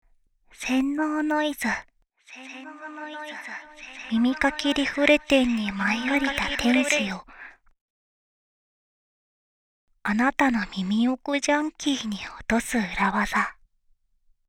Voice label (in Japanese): SE OFF タイトルコール